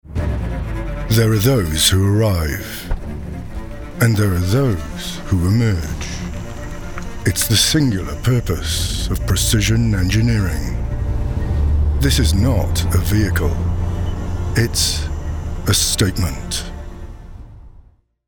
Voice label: Commercial, Luxury Car / Smooth, Bold, Aspirational